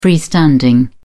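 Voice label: "freestanding", British English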